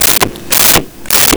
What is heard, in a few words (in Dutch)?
foei foei foei, Dialoog; Home-made